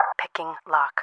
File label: pick, English